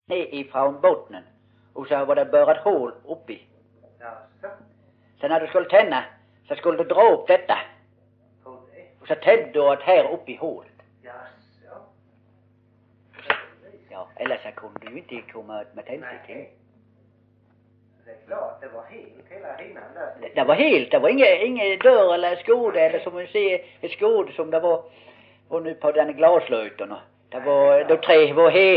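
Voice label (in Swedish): Osby Skåne, dialekter; Folkminnen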